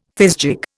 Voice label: "fizgig", Indian